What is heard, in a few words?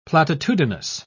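"platitudinous", British English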